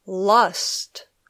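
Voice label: "lust", English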